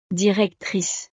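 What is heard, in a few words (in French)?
"directrice", French; profesiones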